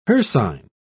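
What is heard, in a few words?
"hircine", British English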